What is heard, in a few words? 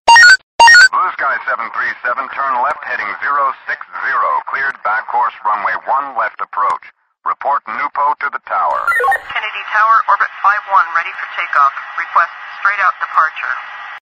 airport tower radio